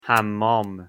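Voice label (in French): toilettes, Persian pronunciation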